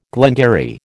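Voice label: "glengarry", American English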